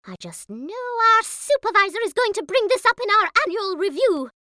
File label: Cartoon voice